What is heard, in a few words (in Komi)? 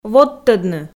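"водтӧдны", Komi, female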